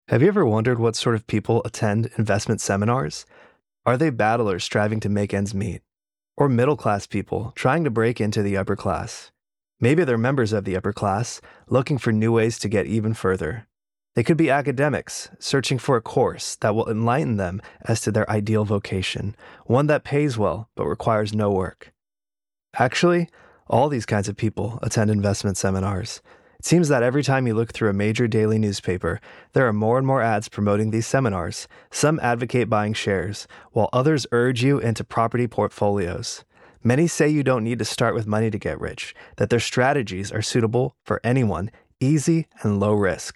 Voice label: audiobook investment properties, audiobook nonfiction; real estate